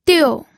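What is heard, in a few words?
"diu", pinyin, fourth tone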